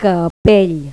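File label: "sombrero", Spanish; Pipo-Aprende musica con Pipo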